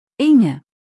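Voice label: "Inge", German; maedchennamen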